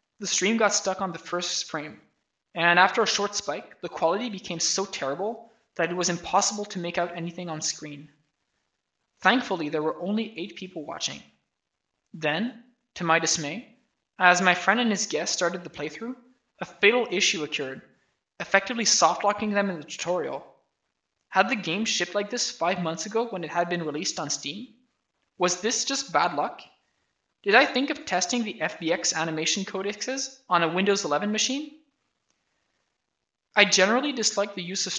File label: voice, narration